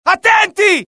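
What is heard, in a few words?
alert, Italian; mohaa; dialogue; sighted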